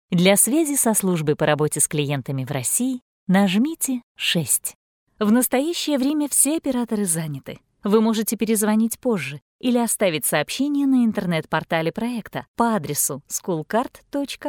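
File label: Russian Russia female upbeat; russian voice over talent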